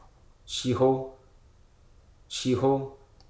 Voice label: "illuminate", Hruso